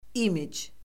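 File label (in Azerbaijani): "imic", Azerbaijani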